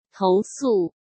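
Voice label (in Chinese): "投诉" Mandarin Chinese; chinese-flashcards; hsk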